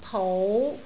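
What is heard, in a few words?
"pou5", Cantonese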